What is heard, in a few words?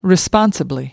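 "responsibly", British English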